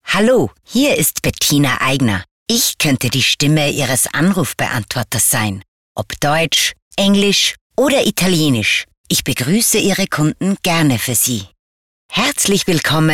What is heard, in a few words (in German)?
Telefonansagen